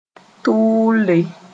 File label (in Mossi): "tʋʋlle", moore